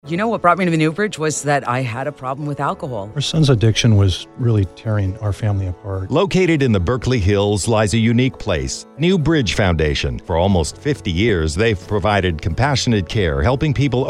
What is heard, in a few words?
RADIO; New Bridge Foundation